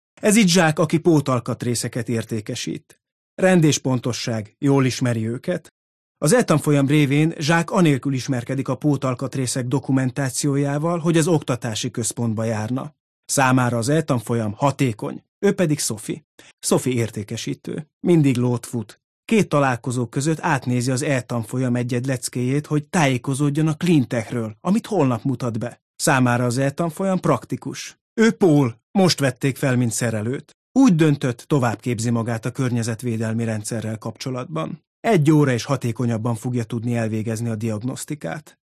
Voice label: hungarian m narration; voice demos

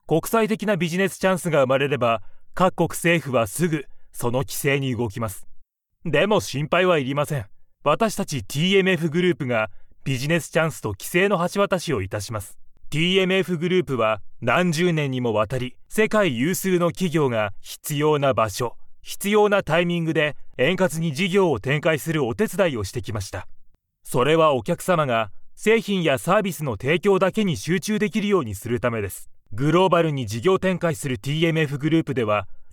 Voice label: japanese m narration; voice demos

